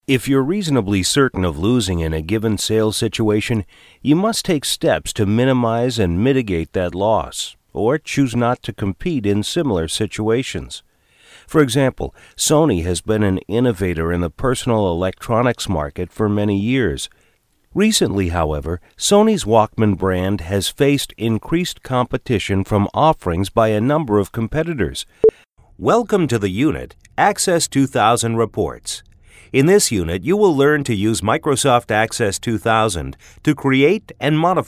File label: US eng